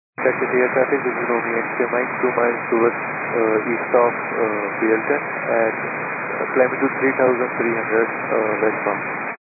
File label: 122 925MHz, scanner radio